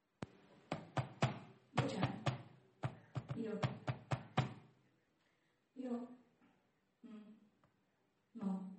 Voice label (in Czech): hlaseni; rozhlas